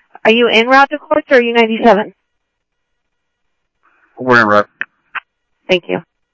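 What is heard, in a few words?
Simulcast police department, scanner audio trunk; Mesa Simulcast; MESA PD Superstn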